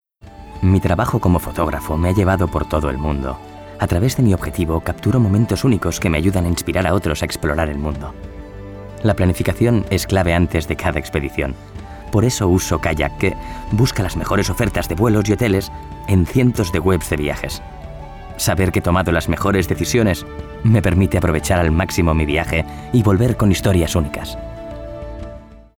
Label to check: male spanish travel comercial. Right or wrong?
Right